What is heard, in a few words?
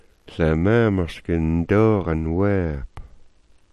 "doog_n", smalgyax